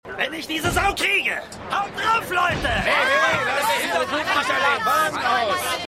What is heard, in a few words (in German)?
Wuetender Mann Wachmann, Stimmproben; Seinfeld